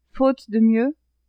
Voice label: "faute de mieux", French; adverbs

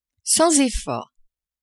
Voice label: "sans effort", French; adverbs

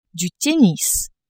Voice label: "tennis", French

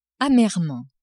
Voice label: "amèrement", French; adverbs

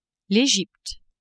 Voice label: "Egypte", French

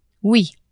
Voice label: "oui", French; adverbs

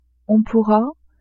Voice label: "on pourra", French

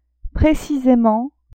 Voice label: "précisément", French; adverbs

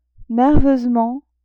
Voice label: "nerveusement", French; adverbs

